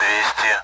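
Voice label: Speech Sound Russian Common AWACS Numbers; DCS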